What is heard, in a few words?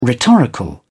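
"rhetorical", British English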